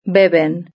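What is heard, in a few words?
"beben" Spanish; verb-conjugator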